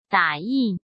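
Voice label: "打印", Mandarin Chinese; chinese-flashcards; hsk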